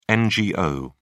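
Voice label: "ngo", British English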